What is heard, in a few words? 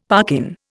"parkin", Indian; Dictionary